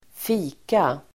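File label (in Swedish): "fika", Swedish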